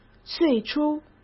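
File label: "最初", Mandarin Chinese; hsk; chinese flashcards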